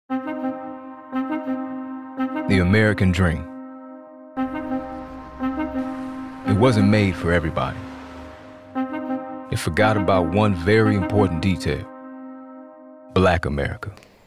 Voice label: Commercial